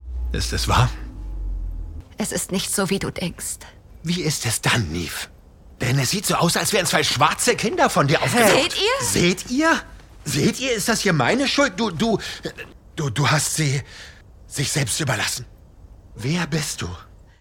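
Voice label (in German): Synchron, Männer; TheStrays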